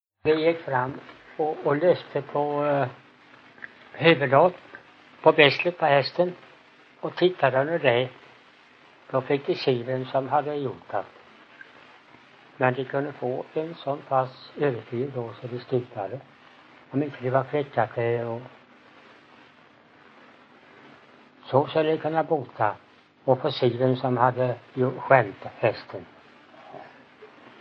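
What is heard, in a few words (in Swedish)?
Ingatorp, Swedish dialect; Folkminnen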